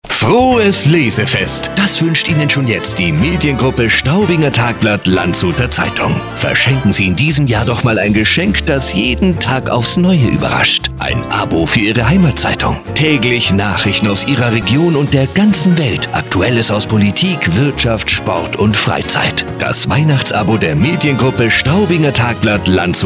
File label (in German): Werbung; Lesefest Straubinger Tagblatt